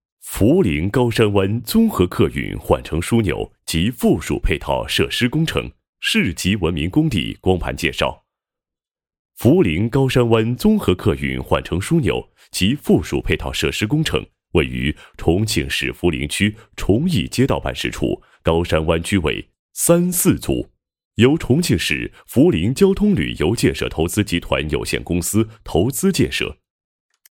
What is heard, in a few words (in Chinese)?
男 工程介绍 介绍; 高山湾市级文明工地光盘